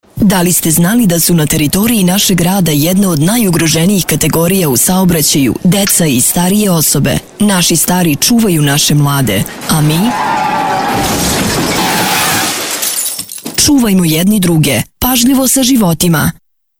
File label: radio; Pazljivo sa zivotima